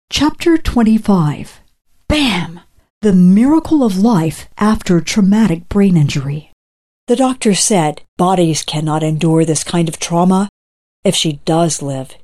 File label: AudioBook